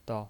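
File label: "dao", Mandarin Chinese, neutral tone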